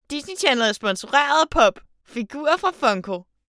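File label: Danish, female, Commercial Sponsor Happy Energy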